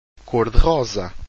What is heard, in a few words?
"cor-de-rosa", Portuguese